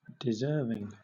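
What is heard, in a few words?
"deserving", British English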